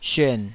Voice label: "syuan3", Taiwanese

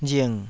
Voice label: "ring3", Taiwanese

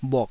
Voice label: "bhuk3", taiwanese Syllables

